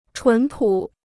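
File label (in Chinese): "纯朴", Chinese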